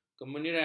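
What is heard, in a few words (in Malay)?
"kemeniran", Malay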